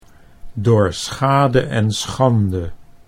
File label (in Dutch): "door schade en schande", Dutch; '(educated) by damage and shame' - the school of hard knocks